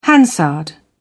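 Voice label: "hansard", British English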